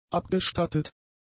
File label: "abgestattet", German; adjectives